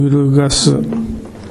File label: "urugasu", minamiyamagata